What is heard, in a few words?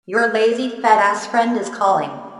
Your lazy fat ass friend is calling!, Funny; Children mobile tunes